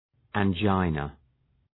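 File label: "angina", English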